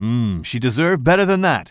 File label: commentator